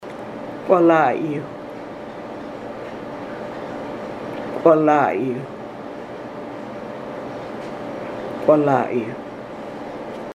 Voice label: Qwalayu pronunciation